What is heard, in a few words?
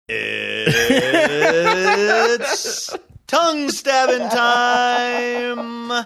saying it's tongue stabbing time funny